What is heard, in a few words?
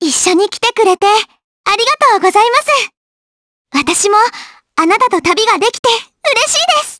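Vox Japanese; Estelle; kingsraid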